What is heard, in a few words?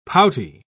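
"pouty", British English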